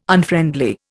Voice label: "Unfriendly", Indian